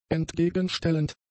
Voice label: "entgegenstellend", German; adjectives